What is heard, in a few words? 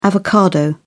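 "avocado", British English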